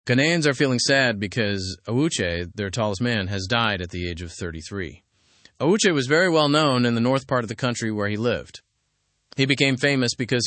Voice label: english through news lower intermediate fast; ghana mourns the passing of awuche

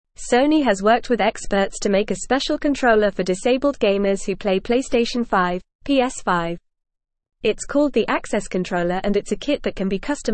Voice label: English Newsroom Upper Intermediate FAST Reading; Sony Partners with Accessibility Experts to Release

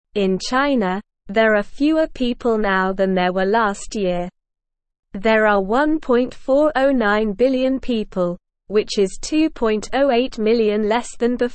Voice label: Beginner SLOW Reading, English; English Newsroom

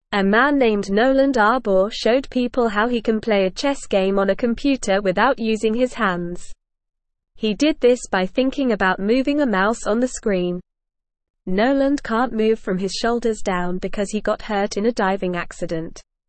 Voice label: English Beginner NORMAL Reading; English Newsroom; Man Plays Chess with Brain Tool No Hands Needed

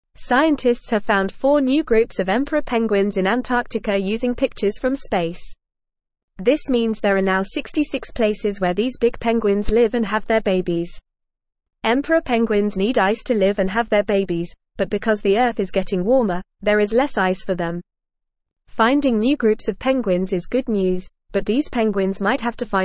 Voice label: English FAST Reading; New Homes Found for Big Penguins in Antarctica

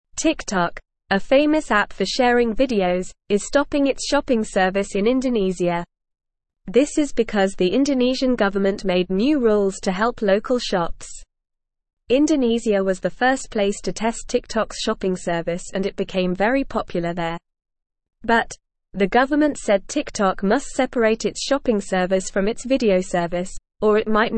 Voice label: English Lower Intermediate NORMAL Reading; TikTok stops shopping in Indonesia to follow rule; English Newsroom

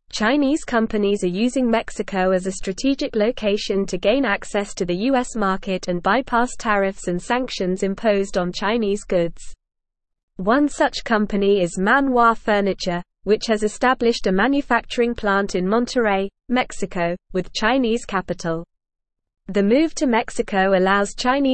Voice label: English Newsroom Advanced NORMAL Reading; Chinese Companies Using Mexico as Backdoor to US Market

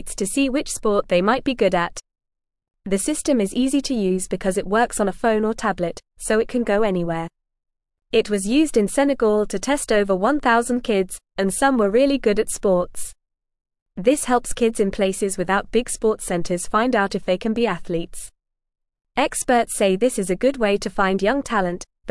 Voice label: English Beginner FAST Reading; Smart Tech Helps Kids Find Their Best Sports

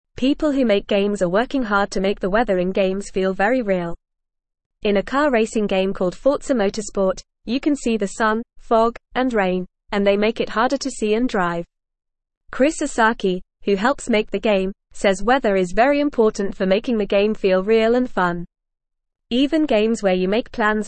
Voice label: English Beginner FAST Reading; Games with Real Weather Make Playing More Exciting; English Newsroom

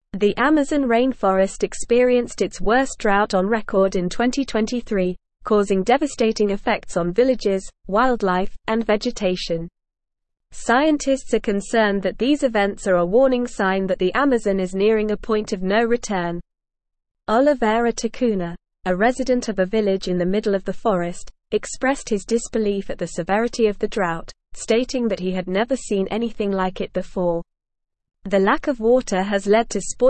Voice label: English Newsroom Advanced NORMAL Reading; Amazon Rainforest Faces Tipping Point as Drought Worsens

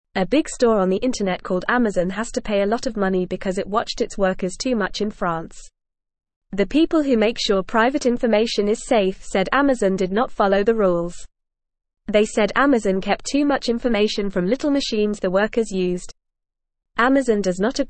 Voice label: Beginner FAST Reading, English; English Newsroom; Amazon Fined for Watching Workers Too Much

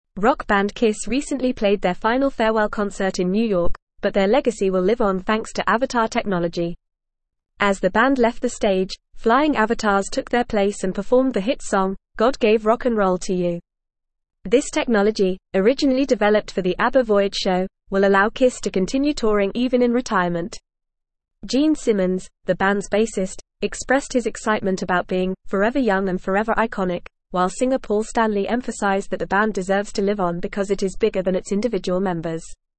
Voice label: Advanced FAST Reading, English; English Newsroom

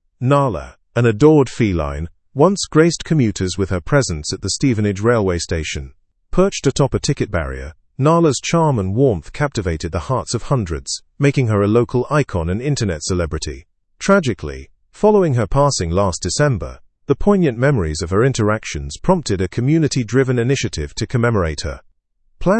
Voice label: learn english through news advanced fast; eternalizing nala a bronze tribute at stevenage

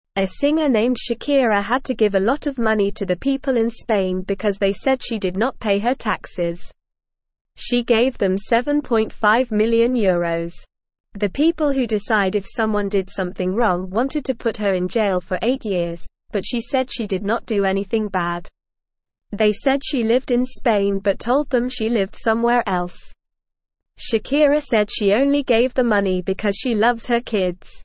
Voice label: English Beginner NORMAL Reading; English Newsroom

